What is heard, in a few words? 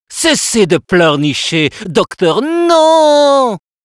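Spy taunts, French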